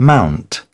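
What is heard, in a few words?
"mount", British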